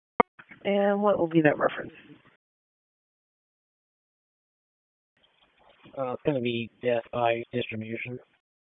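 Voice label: Police Dispatch; Raleigh; Northeast District; wake; p25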